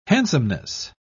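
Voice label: "handsomeness", British English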